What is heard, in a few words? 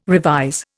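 "revise", Indian English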